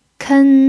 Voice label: "ken1", chinese